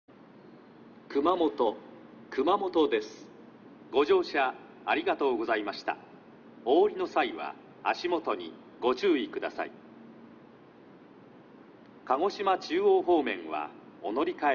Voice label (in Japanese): 到着放送＋乗り換え放送, 新幹線ホーム; 到着放送＋乗り換え放送（さくら579号鹿児島中央行き）; 全線開業直後（2011年3月）に収録; kumamoto